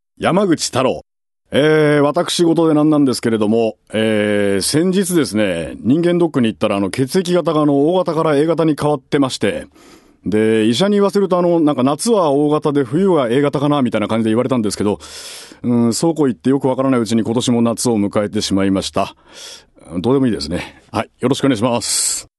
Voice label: freetalk, male voice

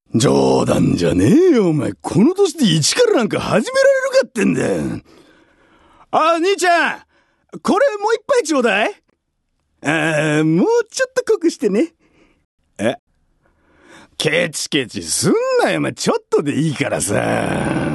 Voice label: male voice

